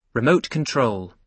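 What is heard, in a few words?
"remote control", British English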